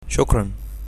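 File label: thanks, Egyptian Arabic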